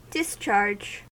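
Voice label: "discharge", American English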